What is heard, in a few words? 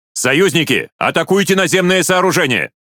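Russian Speech Quick Commands Enemy Building; World Of Warships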